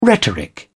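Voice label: "rhetoric", British English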